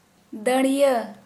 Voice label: Santali, beard